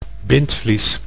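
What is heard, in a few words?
"bindvlies", Dutch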